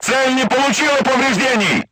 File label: voice message armor not pierce, crew tanks russian